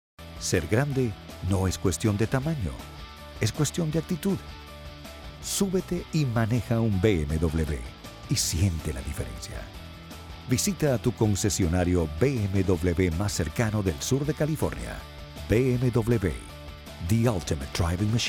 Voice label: Commercial

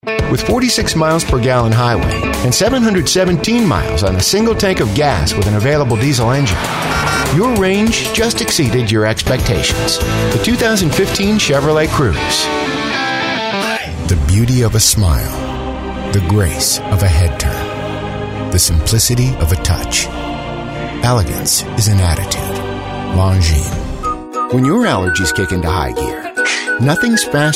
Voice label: Commercials

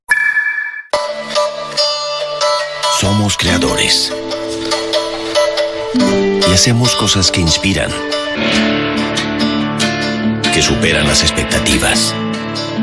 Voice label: AUTOMOTIVE SPANISH

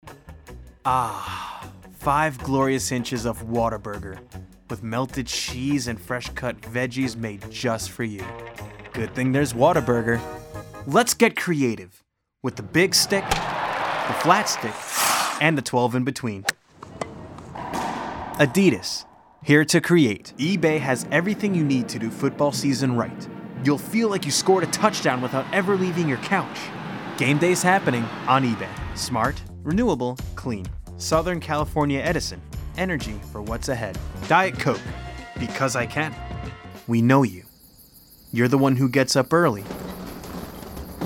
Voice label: Commercial

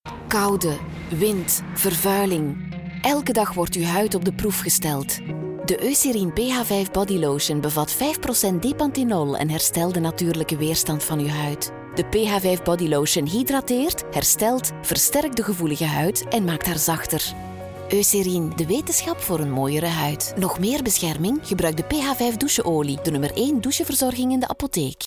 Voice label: TVC reassuring mature; Eucerin

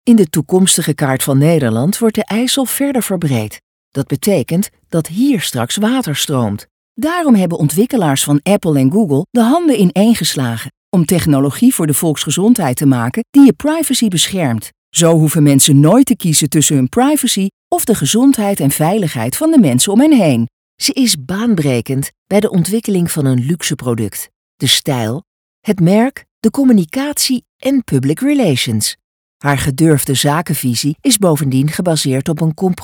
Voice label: Dutch Corporate

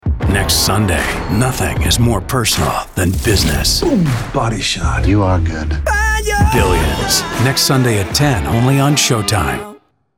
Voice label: Drama; Showtime; Billions

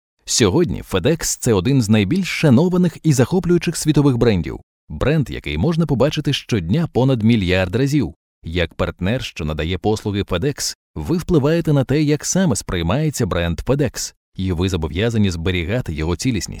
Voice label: E-learning Ukrainian

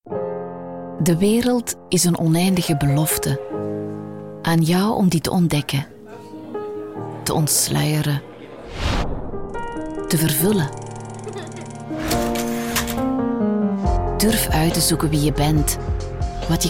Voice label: Webvideo engaging empathetic; Ghent University

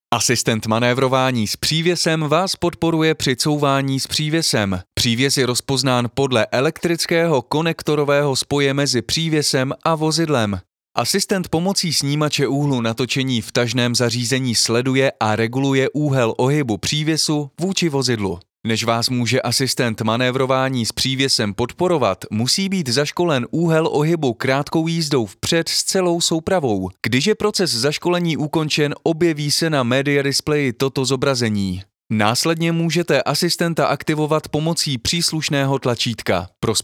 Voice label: E-learning

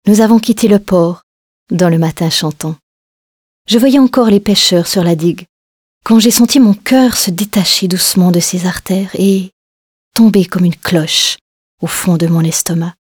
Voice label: Narration

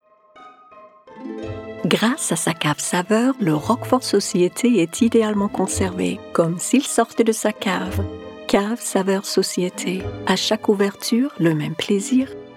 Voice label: French commercial

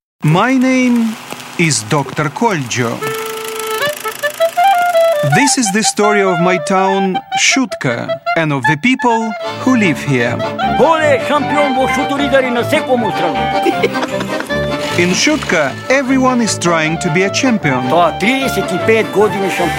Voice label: English; The Shutka Book of Records; Channel 4

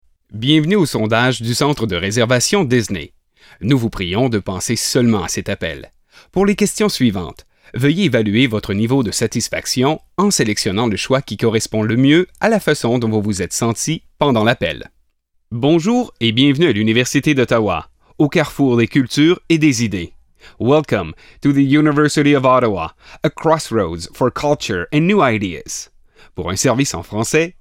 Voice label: Telephone

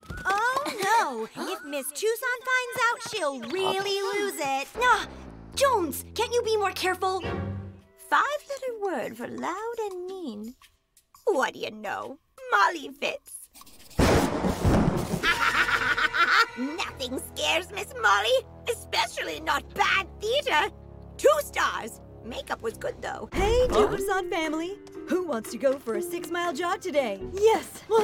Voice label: Animation

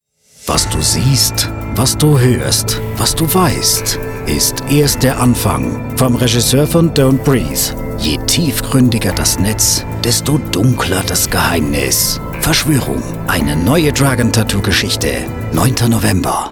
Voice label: Trailer; Verschworung

